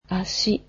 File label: "leg", japanese